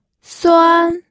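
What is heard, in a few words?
"suan1", Mandarin Chinese pinyin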